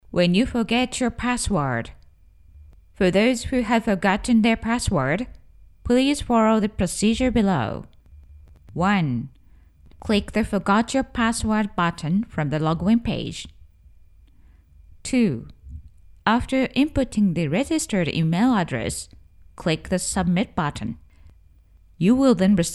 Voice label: learning en